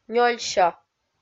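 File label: "нёльсё" Komi-Zyrian, female; kpv audio dict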